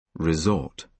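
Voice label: "resort", British English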